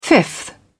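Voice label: "fifth", English, British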